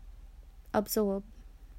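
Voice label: "absorb", Australian English, female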